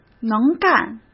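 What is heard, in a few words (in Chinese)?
"能干", Mandarin Chinese; chinese-flashcards; hsk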